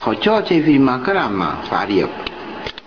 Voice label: Tobian, dialogue